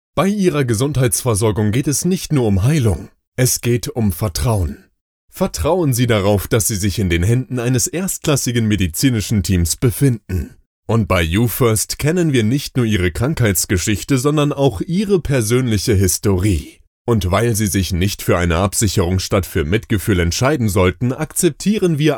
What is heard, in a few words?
Professional